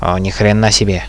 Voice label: oh god, hostage reactions

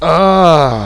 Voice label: awww, hostage reactions

